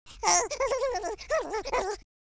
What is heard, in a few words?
scared, speech, French